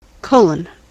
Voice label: "colon", En-us